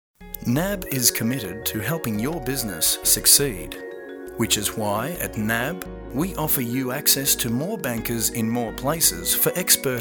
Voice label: Corporate Compilation